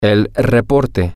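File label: male, spanish; the Report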